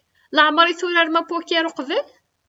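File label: "Laɛmeṛ i turarem apukiṛ uqbel?", Kabyle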